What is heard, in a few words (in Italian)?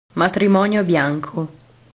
"matrimonio bianco", italian